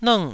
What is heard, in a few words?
"lng4", Taiwanese syllable